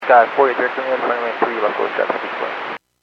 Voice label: Aviation 122.7; KSTE